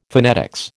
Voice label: "phonetics", American

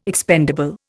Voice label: "expendable", Indian

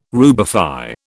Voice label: "rubify", British

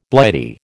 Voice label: "Blighty", American

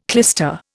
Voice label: "clyster", Indian

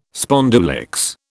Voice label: "spondulicks", British

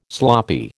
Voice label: "sloppy", American

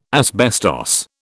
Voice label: "asbestos", British

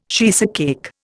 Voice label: "cheesecake", Indian

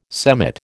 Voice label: "semmit", American

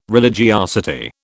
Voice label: "religiosity", British

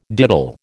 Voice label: "diddle", American

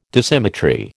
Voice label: "Dissymmetry", American

